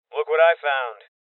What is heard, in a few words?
Voices Loot Spotted Male Young; rebel cops 2019 gamerip